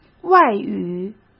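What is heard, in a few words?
"外语", Mandarin Chinese; chinese flashcards; hsk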